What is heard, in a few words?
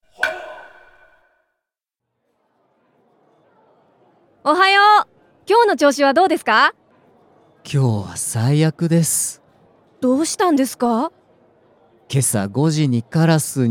dialog; JapanesePod101; Beginner Season 1